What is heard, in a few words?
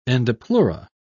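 "endopleura", British English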